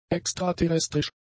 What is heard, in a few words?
"extraterrestrisch", German; adjectives